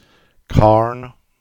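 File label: "Carne" English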